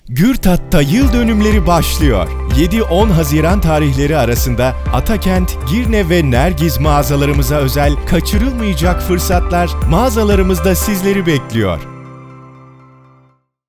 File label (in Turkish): reklam seslendirme